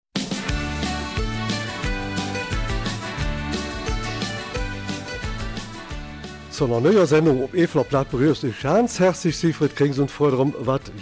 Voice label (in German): Eifeler Mundart; Der Beruf des Sommeliers